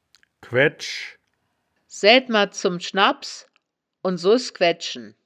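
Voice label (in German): "quaeddsch", Wittlicher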